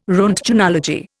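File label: "roentgenology", Indian English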